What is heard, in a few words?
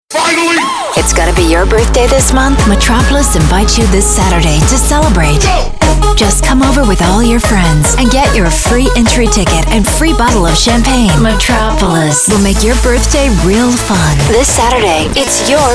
birthday party commercial; metropolis